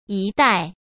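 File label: "一代", Mandarin Chinese; hsk; chinese-flashcards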